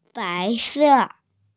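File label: "white", Mandarin Chinese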